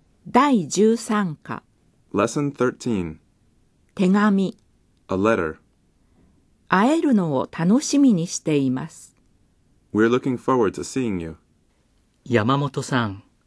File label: Dialog